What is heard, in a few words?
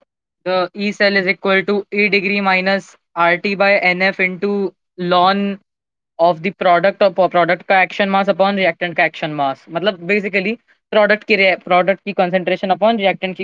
voice message